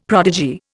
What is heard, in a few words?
"prodigy", Indian; Dictionary